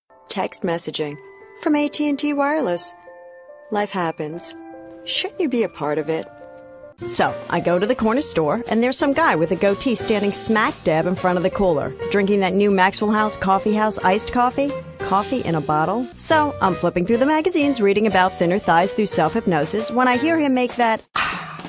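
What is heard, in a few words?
commericals characters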